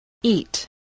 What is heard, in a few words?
"eat", English